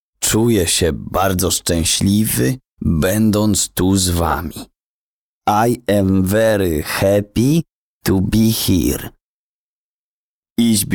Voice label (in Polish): niepewny reklama szkoła językowa